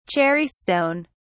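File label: "cherry stone", British English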